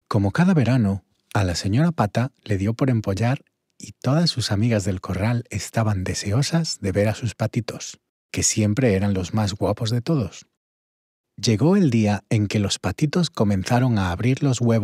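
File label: Spanish, children story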